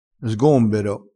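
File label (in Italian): "sgombero", vocabolario dialettale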